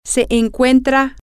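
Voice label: is x in, Spanish, female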